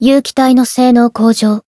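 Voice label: growth, Japanese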